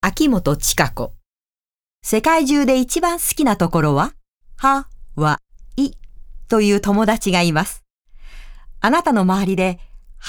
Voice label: ナレ Hawai

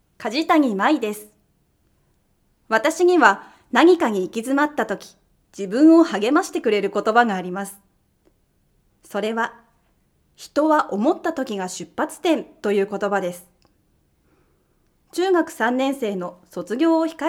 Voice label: フリートーク

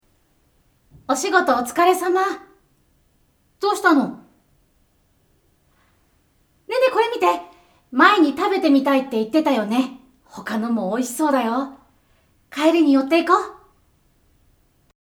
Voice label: セリフ 女性

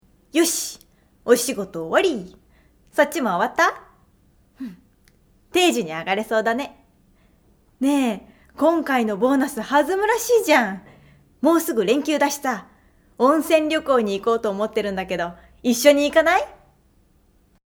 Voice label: セリフ OL

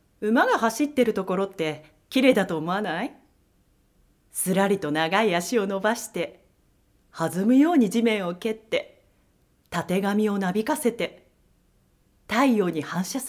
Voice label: セリフ・ナレ

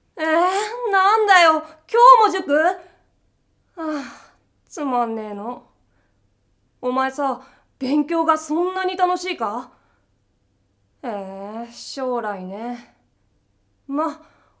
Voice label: セリフ 少年

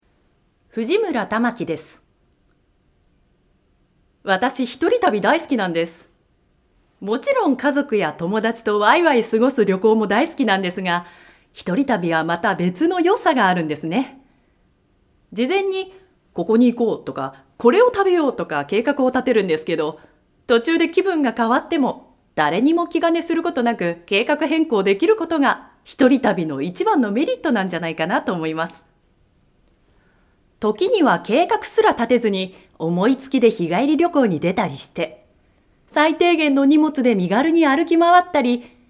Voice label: フリートーク 一人旅

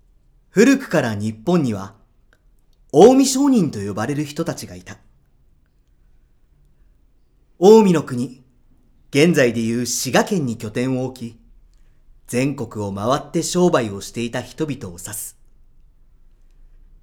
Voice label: ナレ

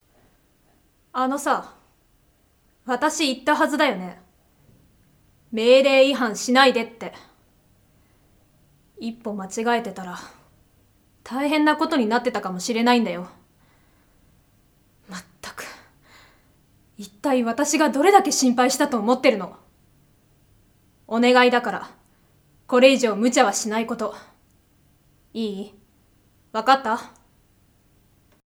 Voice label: セリフ 20代後半

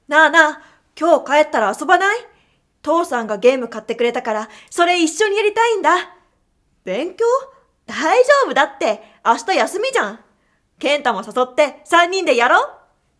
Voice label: セリフ 少年

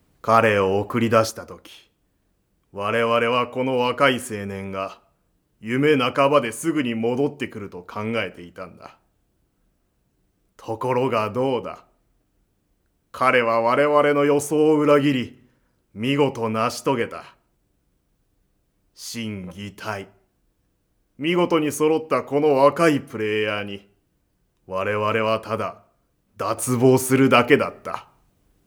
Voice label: セリフ 老け

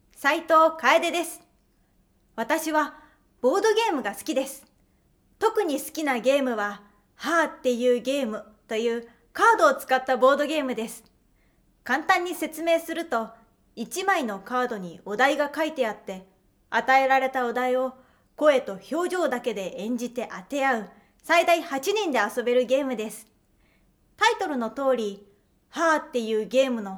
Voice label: フリートーク

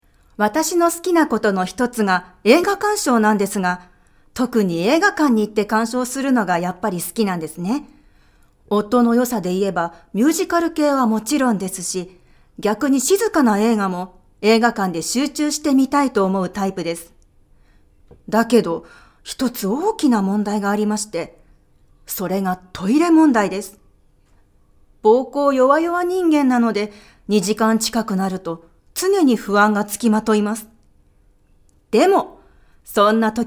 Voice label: フリートーク

